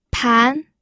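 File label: "pan", Mandarin Chinese, second tone; pinyin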